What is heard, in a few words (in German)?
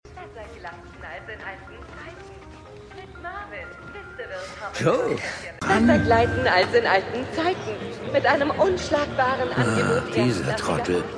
Werbesprecherin; HELLO TOMORROW!